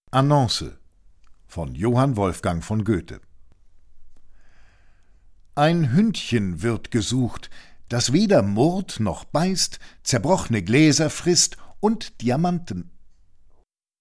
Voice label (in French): annonce